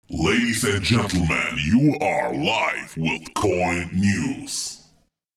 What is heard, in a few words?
COIN NEWS SHOUT